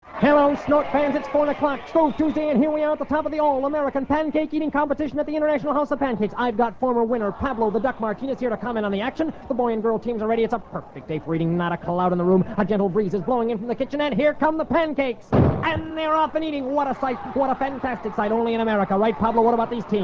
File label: announcer; ihop